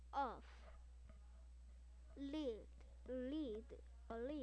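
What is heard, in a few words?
"lead", spoken word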